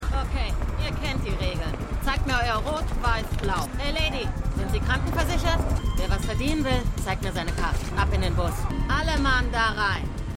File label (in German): Busfahrerin; AtlantaMedical